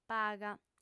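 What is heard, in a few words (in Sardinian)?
"paga", female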